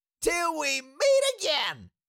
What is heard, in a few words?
Cartoon little monster voice till we meet again